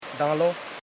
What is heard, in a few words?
"dan lo", Koro